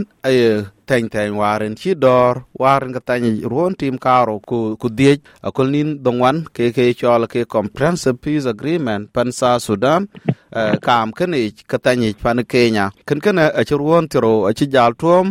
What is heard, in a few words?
dinka; SBS Dinka